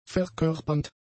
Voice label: "verkörpernd", German; adjectives